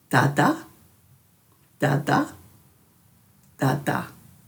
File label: "dhadha", Dhurga